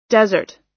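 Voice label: "desert", American English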